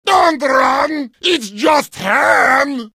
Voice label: heavy sandwich taunt, vo